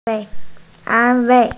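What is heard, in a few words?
"an1wei4", Mandarin Chinese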